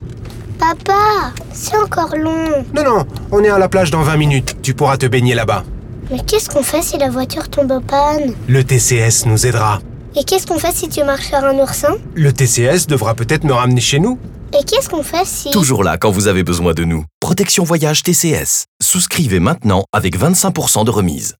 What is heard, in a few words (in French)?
radiospot; tcs plage